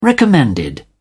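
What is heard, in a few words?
"recommended", British English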